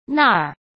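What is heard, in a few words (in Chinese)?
"那儿", Mandarin Chinese; hsk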